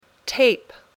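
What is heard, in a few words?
"tape", English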